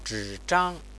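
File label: "zhizhang", Chinese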